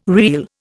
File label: "reel", Indian